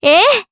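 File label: Vox, voices, Japanese; Juno; kingsraid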